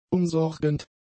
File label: "umsorgend", German; adjectives